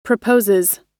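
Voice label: "proposes", US English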